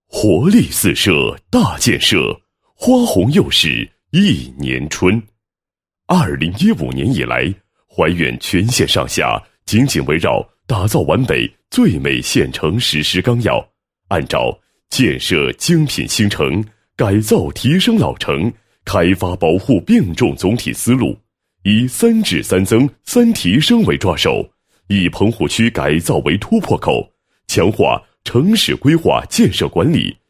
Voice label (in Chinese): 男 纪实 工作纪实; 度征迁拆违暨城市大建设